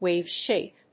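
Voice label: "Wave shape", English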